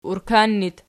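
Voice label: "urkan" locative, Archi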